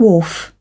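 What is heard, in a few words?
"wharf", British English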